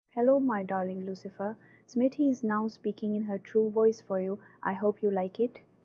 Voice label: cloned voice